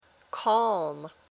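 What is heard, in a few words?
"calm", English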